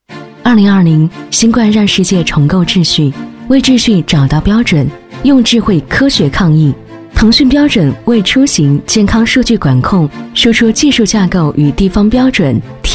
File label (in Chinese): 女 宣传片 科技感; 腾讯标准